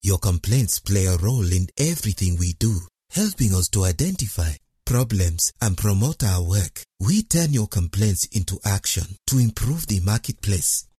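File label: African Accented, male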